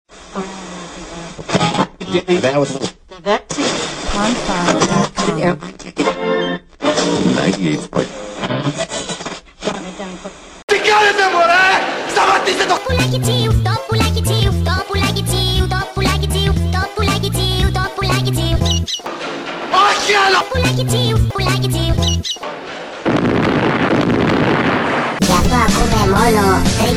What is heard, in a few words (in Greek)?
spot; 3olyk larisas